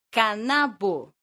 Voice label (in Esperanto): "kanabo", esperanto; leciono1